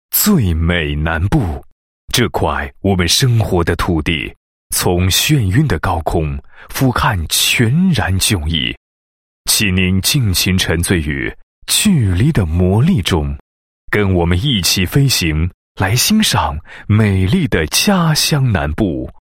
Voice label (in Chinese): 男 栏目包装 宣传广告; 最美南部